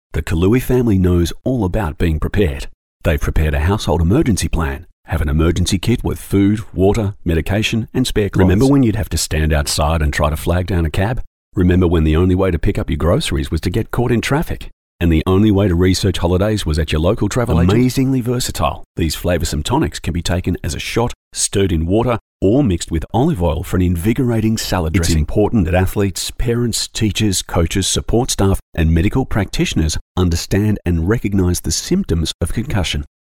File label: Natural